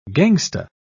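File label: "gangsta", English, British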